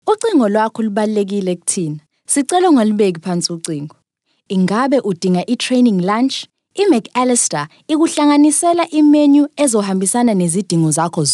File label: Zulu Female